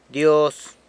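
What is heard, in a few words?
"Dyoz", San Lucas Quiaviní Zapotec; God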